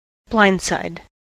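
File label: "blindside", US English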